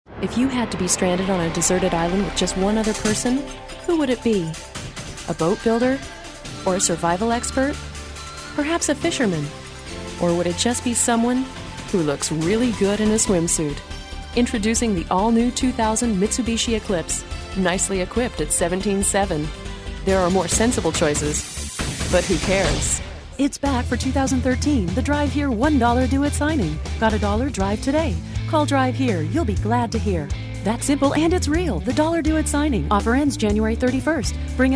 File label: Car Commercial